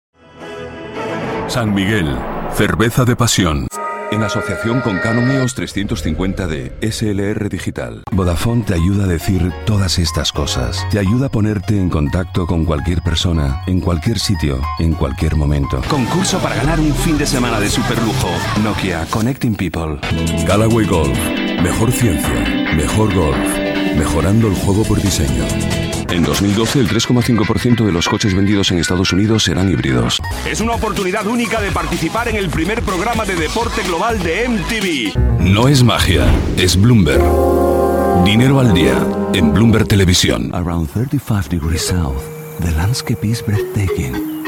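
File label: Spanish, male, commercial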